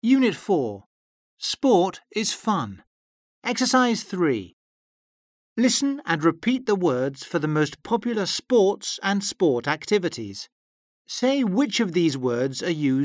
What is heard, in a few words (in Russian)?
аудио запись к фонетической зарядке